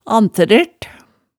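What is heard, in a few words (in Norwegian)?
"antærert", Hemsedal dialect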